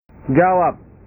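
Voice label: "jawab", Indonesian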